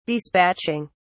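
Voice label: "dispatching", English, British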